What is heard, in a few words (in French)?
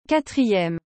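"quatrieme", French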